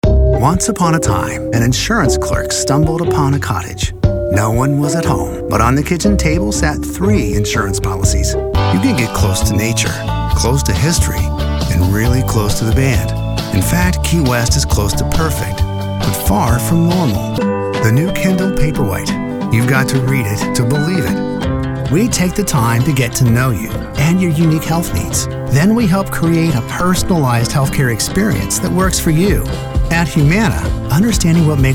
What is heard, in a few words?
Commercial